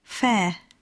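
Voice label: "fair", English; poitiers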